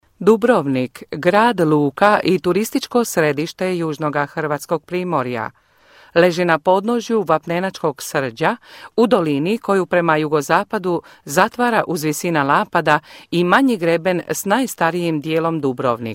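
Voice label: croatian, female voice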